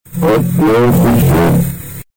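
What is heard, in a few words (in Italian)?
"oh si accusa", Italian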